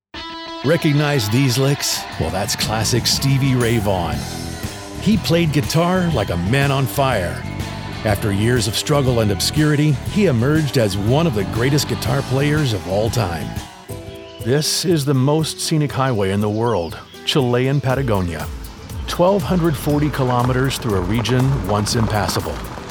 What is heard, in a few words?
Guide and Documentary; Demo